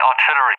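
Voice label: "artillery", English; UK; Target; DCS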